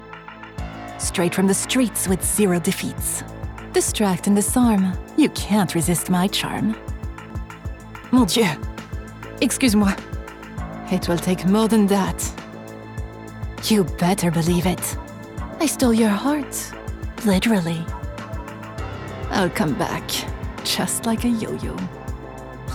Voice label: animation fr accent